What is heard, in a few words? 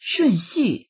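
"shun4xu4", Mandarin Chinese; 顺序; 順序；順次…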